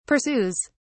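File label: "pursues", British English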